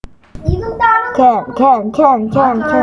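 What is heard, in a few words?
"can", spoken word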